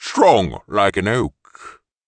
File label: Forest Protector Ancient